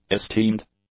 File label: "esteemed", English; adjectives